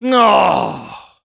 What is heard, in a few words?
Spy negative vocalization, fr; "(Grommelle 1)"